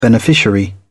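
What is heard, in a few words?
"beneficiary", English, British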